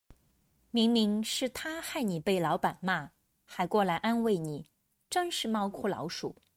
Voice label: denoised